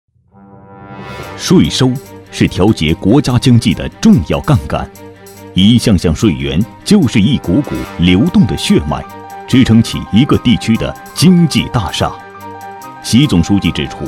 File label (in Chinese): 男9 党建政府（正式 铿锵有力）